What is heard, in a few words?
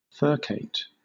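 "furcate", British English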